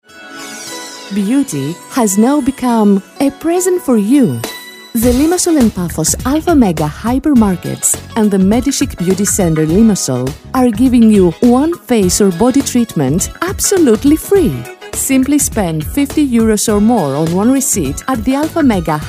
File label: English mixed